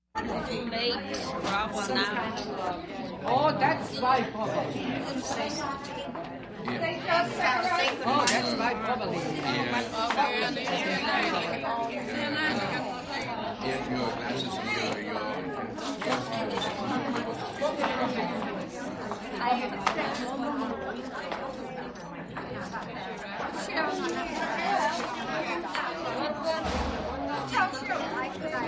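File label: Elderly People Lively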